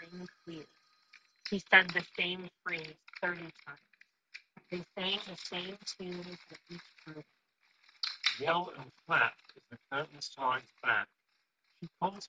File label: Office microphone sample; Logitech G Fit Lightspeed